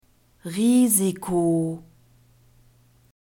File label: "Risiko", German